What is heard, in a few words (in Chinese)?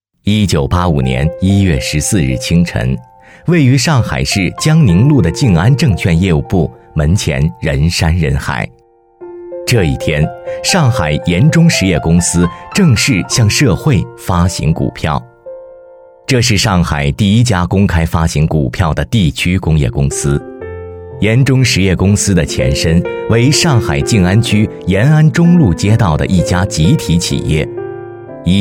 男 人物专题 人物纪实